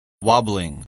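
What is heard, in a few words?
"wobbling", English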